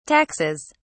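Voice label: "taxes", British English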